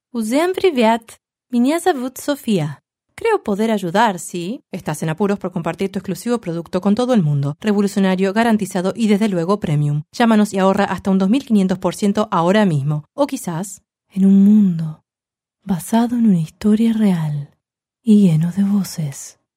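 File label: Spanish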